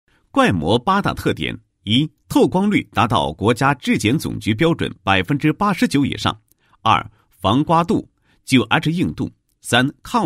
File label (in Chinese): 产品解说, 国语男声配音; 高音经济型; 怪膜八大特点